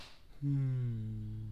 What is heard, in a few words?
oldman dialogue